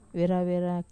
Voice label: "vera-vera", Wamesa

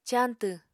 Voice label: "cañtw", Apatani

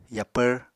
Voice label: "yapvr", Apatani

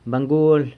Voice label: "bangual", San Lucas Quiavini; old person

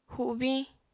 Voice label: "hubiñ", Apatani

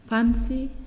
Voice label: "Louse", Naasioi

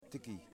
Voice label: "Sago String", Yimas

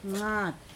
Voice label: "When", Pingelapese

